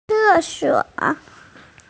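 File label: "ce4suo3", Mandarin Chinese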